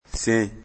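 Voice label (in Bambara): "sen", Bambara; corps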